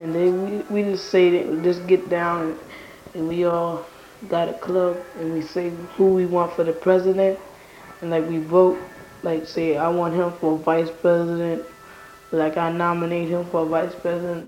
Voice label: Conversation with 14 year old black male New York New York, american english dialect